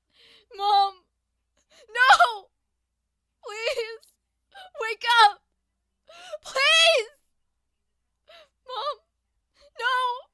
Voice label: Crying Mom No Please Wake Up Please

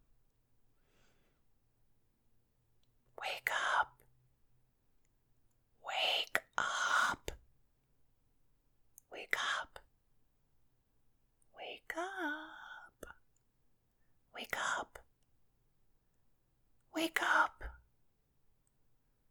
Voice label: Female Voice Whispering Wake Up

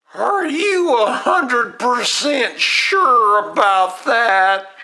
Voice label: Funny Cartoony Vocal Hillbilly

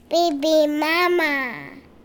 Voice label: Voices Young Child Saying Baby Mama

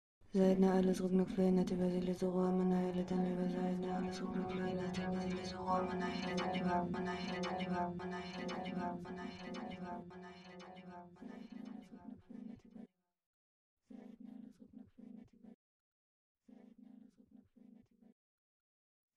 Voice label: Electric voice

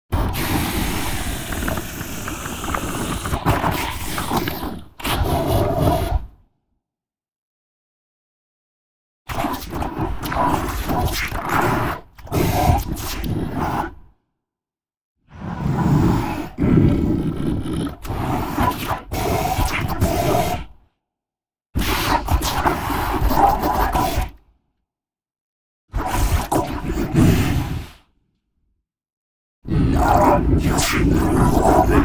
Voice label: Demon Monster Voice

